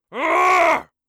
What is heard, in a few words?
angry, orc voice; Orc Voice Pack